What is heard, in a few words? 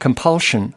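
"compulsion", US English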